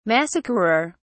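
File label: "massacrer", British English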